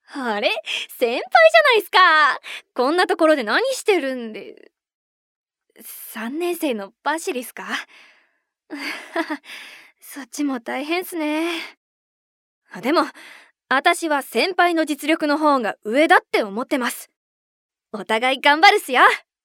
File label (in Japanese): 男まさりな後輩